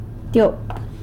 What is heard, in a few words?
"tyop" two; san lucas quiavini